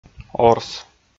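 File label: "орс", Komi, male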